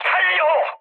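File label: Korean enemy detected mood high; War Thunder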